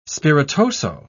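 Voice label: "spiritoso", British English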